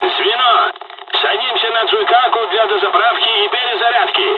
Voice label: dialogs russian; War Thunder